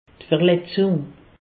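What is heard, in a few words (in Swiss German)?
"verletzung", Alsatian; medecine; blessure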